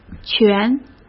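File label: "权", Mandarin Chinese; hsk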